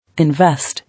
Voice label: "Invest", English

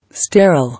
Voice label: "Sterile", English

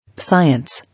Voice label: "Science", English

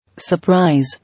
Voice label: "Surprise", English

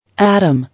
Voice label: "Adam", English

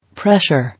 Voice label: "Pressure", English

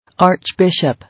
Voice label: "Archbishop", English

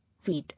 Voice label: "Weed", English

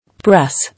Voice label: "Press", English

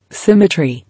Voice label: "Symmetry", English

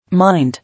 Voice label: "Mind", English

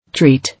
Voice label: "Treat", English

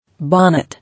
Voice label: "Bonnet", English

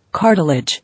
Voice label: "Cartilage", English

